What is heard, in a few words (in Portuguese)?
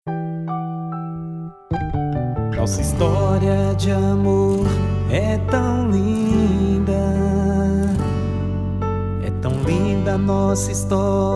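ROMANTICA evangelica male, Musica Romantica evangelica, telemensagem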